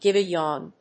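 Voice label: "give a yawn", English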